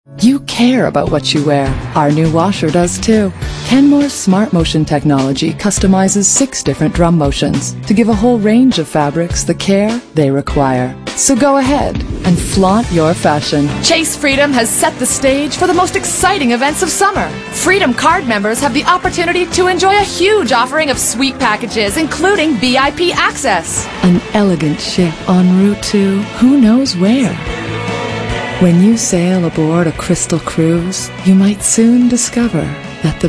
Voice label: female, late20s mid30s contemp